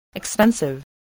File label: "expensive", voice